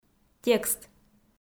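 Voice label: "текст", Komi, female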